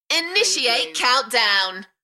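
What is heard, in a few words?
British female Initiate countdown Enthusiastic; beanstalk audio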